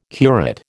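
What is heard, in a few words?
"curate", American English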